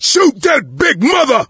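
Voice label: battlecry tank, male; Left 4 Dead 2; coach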